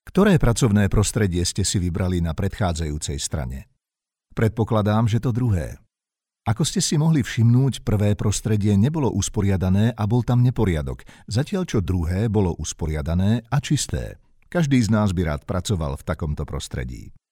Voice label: slovakian voiceover locutor eslovaco